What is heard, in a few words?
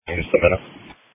Police Dispatch